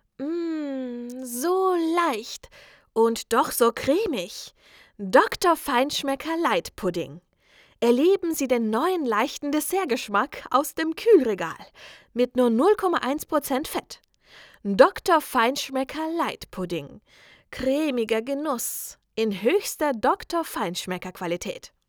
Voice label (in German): Werbung